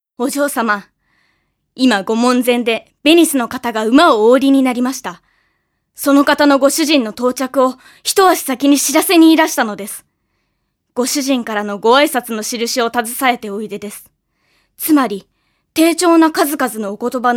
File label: female voice